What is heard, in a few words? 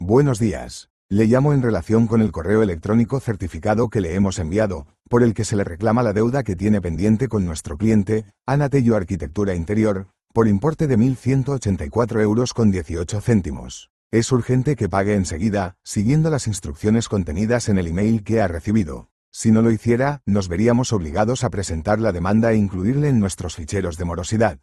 audio call